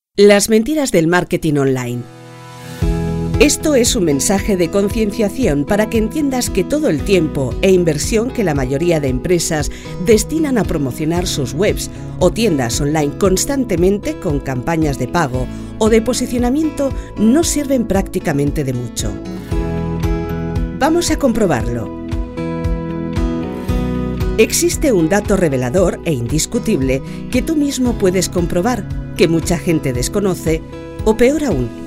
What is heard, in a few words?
F elearning